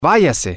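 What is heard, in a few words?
go away, spanish, male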